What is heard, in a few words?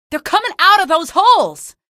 alert antlions, female; episodic; episode 1; Source engine